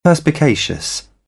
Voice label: "perspicacious", British; ɝː - ɜː; English

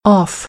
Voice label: "off", American English; ɑː - ɒ

